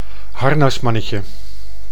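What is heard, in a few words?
"harnasmannetje", Dutch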